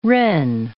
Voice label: "wren", English

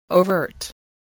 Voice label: "overt", English voice

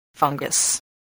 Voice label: "fungus", voice, English

